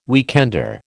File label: "weekender", American